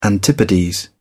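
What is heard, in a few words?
"antipodes", British English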